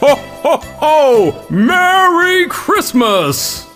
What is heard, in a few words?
lock chime, "Ho Ho Ho"